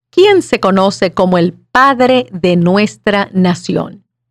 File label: Spanish